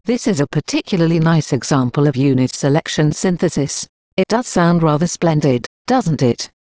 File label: rhetorical en female